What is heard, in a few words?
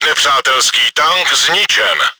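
enemy killed by player, чешской озвучки; Nepřátelský tank zničen!; Czech; Вражеский танк уничтожен!; World of Tanks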